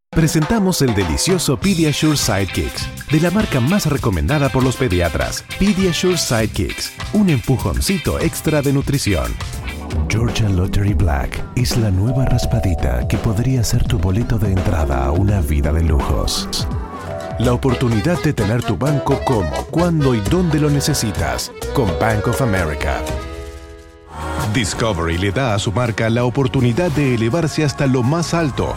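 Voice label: male, commercial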